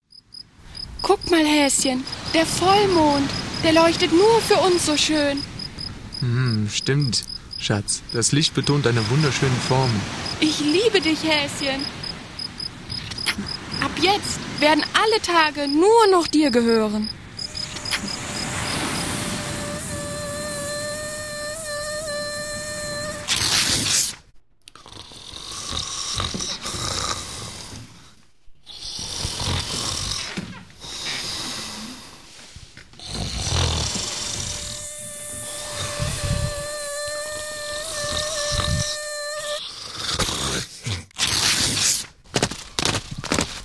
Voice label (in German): Hoerspiel Muecke; Hörspiel-Workshop Eurojugend Aachen, März 2008